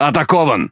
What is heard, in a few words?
Speech Pilots Torpedo Under Attack, RU; World Of Warships